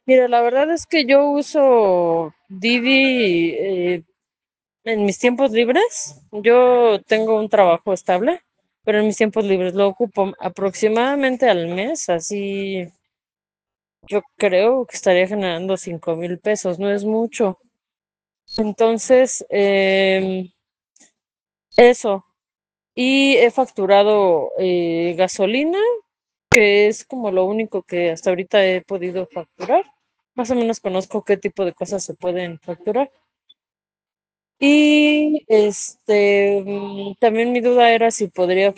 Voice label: whatsapp ptt